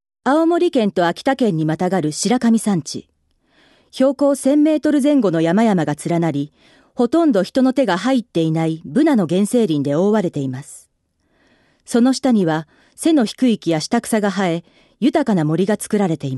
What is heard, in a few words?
na, female voice; ナレーション